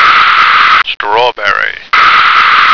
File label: "strawberry", American